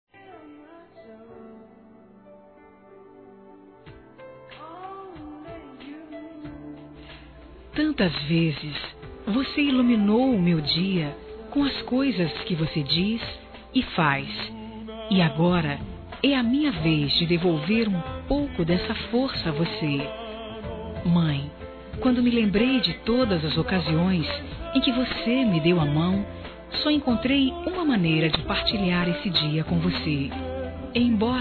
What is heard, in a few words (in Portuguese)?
DISTANTE F, Telemensagens Dia das Mães Fem; Telemensagens 2017